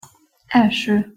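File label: "elso", Hungarian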